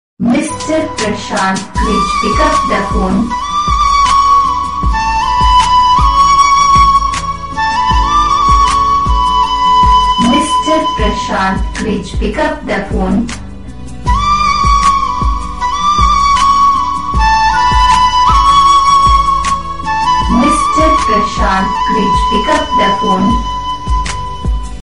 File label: please pickup the phone ringtone with song